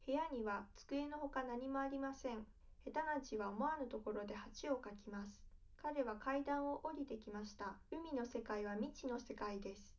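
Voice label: female, live recording, 250 ms, 1 m; SiSEC11; underdetermined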